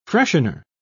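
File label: "freshener", British English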